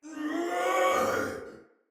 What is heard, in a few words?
Battle Cry, Soldier Male; Barks; Dialog; Sinecure Soldier Male B